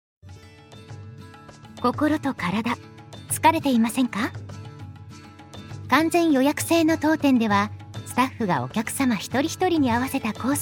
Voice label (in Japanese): ナレーション